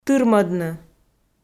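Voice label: "тырмӧдны", Komi, female; audio dict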